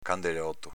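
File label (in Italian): "candelòto" Veneto dialect; s.m. torso di candela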